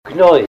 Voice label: pus, Ninilchik Russian; sickness; deaf (person); glahóy